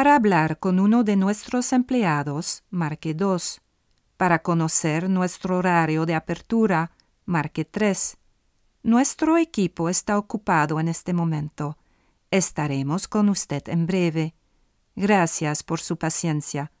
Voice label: Voice museo ES